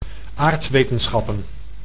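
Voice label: "aardwetenschappen", Dutch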